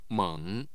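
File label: "meng3", Mandarin Chinese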